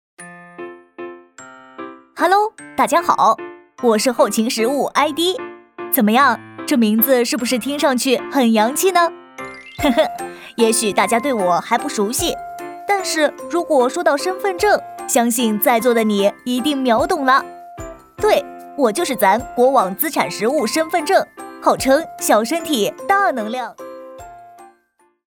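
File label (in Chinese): 男童旁白; 四川电力后勤资产实物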